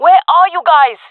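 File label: request help, female; vo; agents; jungle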